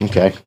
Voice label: "okay", expletives